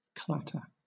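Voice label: "clatter", English, British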